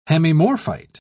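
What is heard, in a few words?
"hemimorphite", British English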